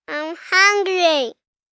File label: Whine Im Hungry, 1 boy, 4 yrs; Whining; Voice Box